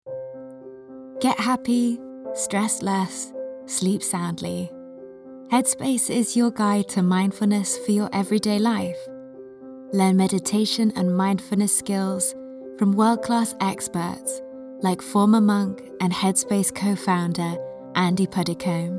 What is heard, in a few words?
english british